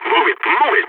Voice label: radio lets go, balkan; Counter-Strike Global Offensive